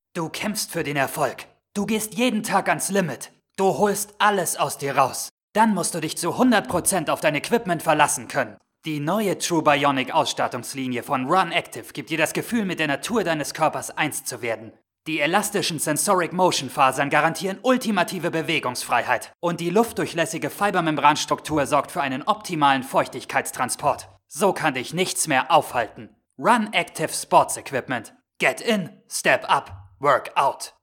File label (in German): Werbung; Run Active